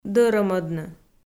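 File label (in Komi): "дӧрӧмӧдны", Komi, female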